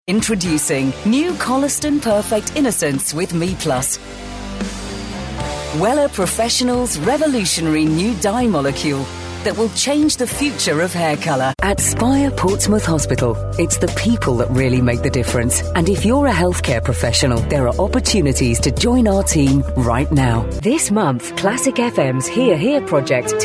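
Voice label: British commercials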